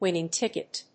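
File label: "winning ticket", English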